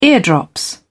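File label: "ear drops", British English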